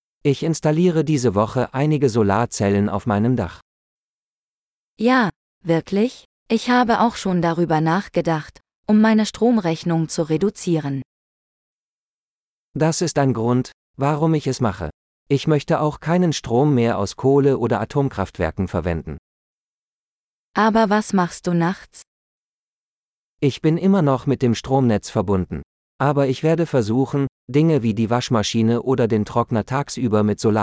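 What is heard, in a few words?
Renewable Energies - Dialogue, German; de-en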